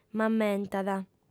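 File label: "m'ammentat", female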